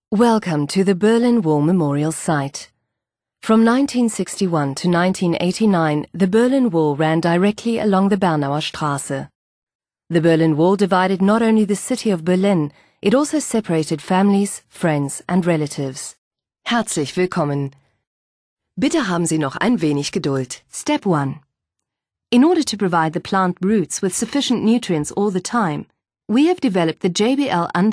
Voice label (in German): Sachlich, english